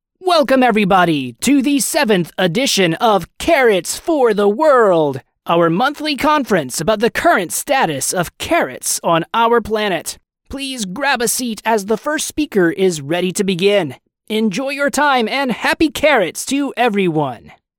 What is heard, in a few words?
live announce